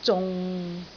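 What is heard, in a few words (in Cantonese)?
"zung6", Cantonese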